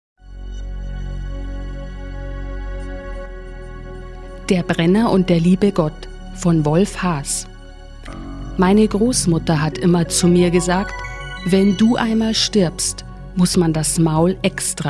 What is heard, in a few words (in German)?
Hoerspiel